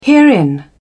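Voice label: "herein", British English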